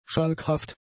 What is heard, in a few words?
"schalkhaft", german; adjectives